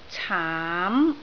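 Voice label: "caam2", Cantonese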